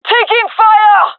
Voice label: taking fire, gendarmerie fem; vo; agents